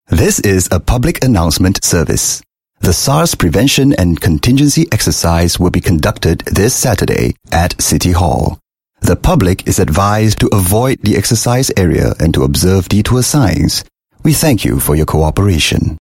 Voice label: Asian English public announcement

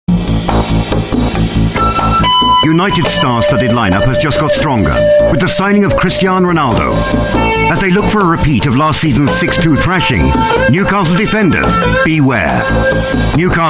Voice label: UK English Football, Male

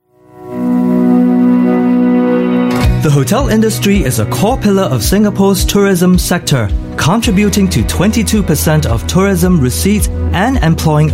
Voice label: Asian English; STB

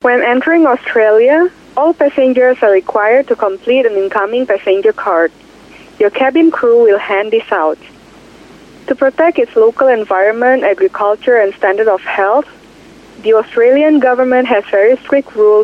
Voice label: Bahasa Indonesia Accented English F

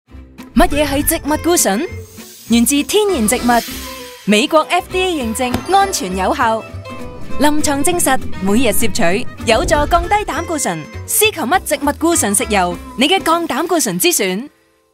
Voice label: Cantonese Voice Sample

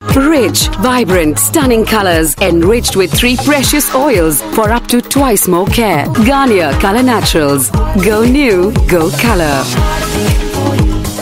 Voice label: Indian English TVC; Garnier

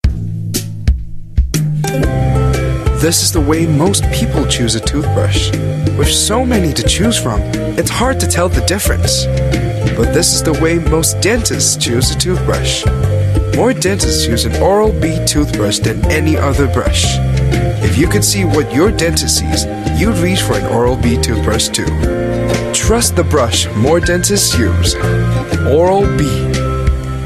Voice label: Asian English Oral B Sample

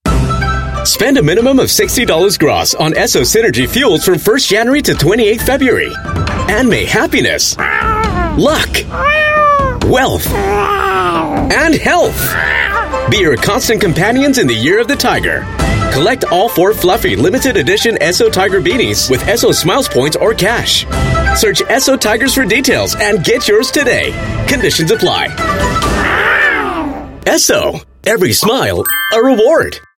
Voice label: Asian English Radio; Esso Tigers Of Blessing

